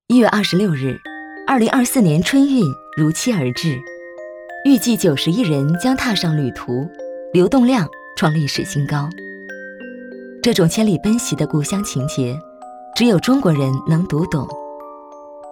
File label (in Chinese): 女, 专题, 春运, 自然讲述; 女7-大气温暖 纪实记录; 女5-年轻甜美 舒缓抒情; 白象